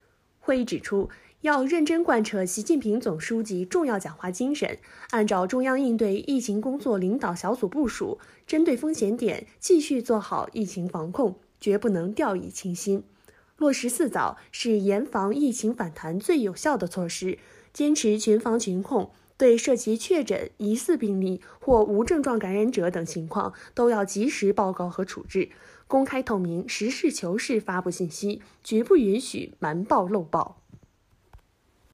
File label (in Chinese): 新闻